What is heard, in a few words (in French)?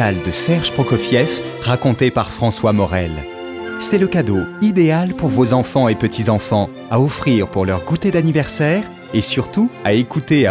PUB RADIO CLASSIQUE